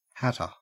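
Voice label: "hatter", Australian English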